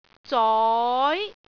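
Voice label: "zoi2", Cantonese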